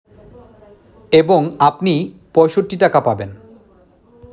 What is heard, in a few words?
male